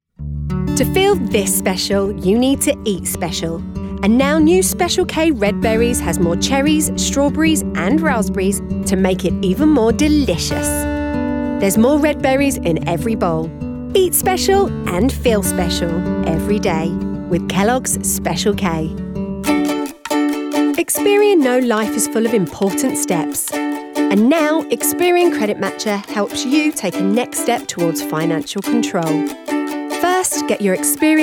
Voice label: Commercials

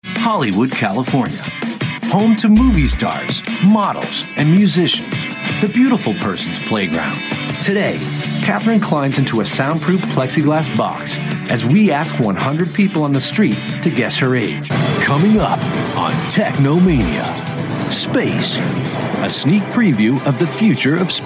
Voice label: narration

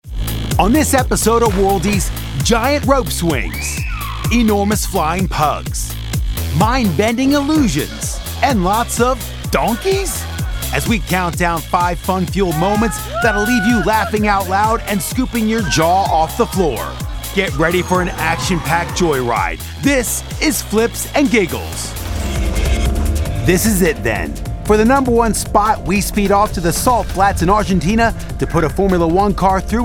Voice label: Narration

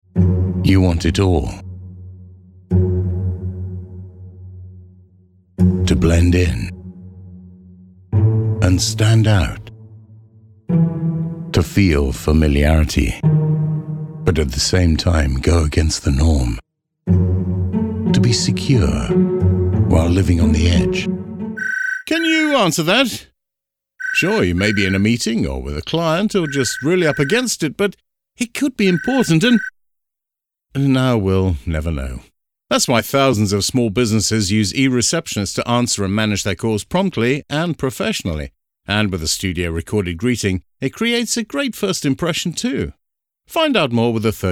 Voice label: Commercials

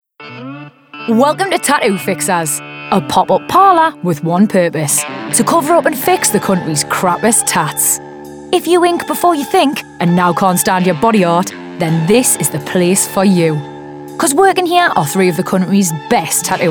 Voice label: Narration

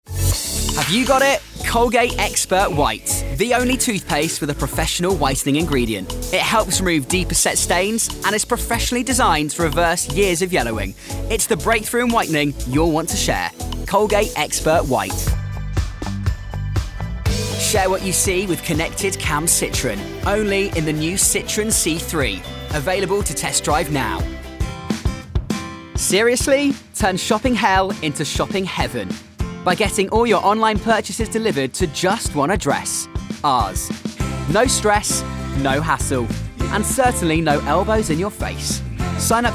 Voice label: Commercials

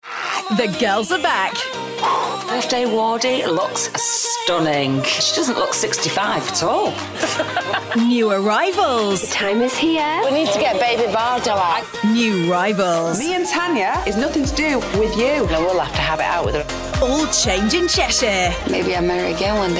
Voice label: Promos

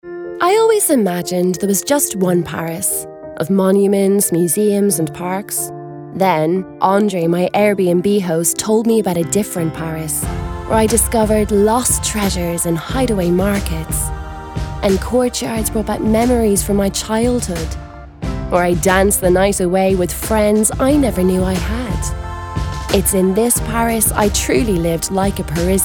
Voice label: Commercials

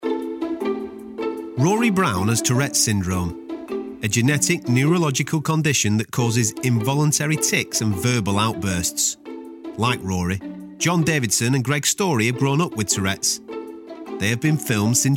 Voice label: Narration

